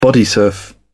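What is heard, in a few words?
"bodysurf", British English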